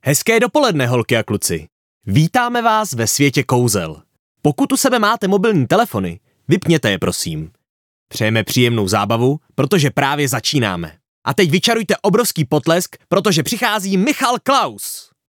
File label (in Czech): show VO, muzsky hlas; kouzelnicka show; dabing; krasny muzsky hlas